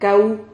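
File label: "cau", Spanish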